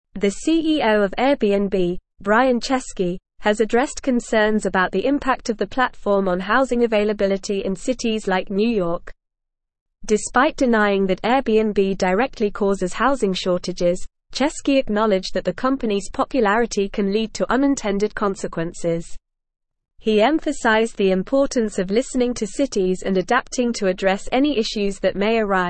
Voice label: English Newsroom Advanced NORMAL Reading; Airbnb CEO Addresses Housing Concerns Adapts to Regulations; The CEO of Airbnb, Brian Chesky